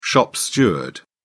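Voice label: "shop steward", British English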